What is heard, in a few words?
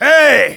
yes, de; Demoman